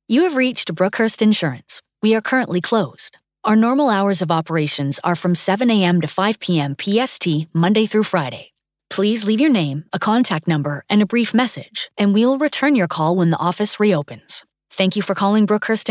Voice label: After Hours Voicemail Greeting